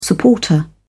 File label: "supporter", en uk